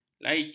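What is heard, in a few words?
"laici", Malay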